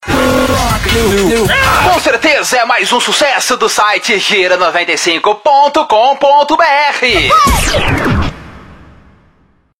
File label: Broadcast Radio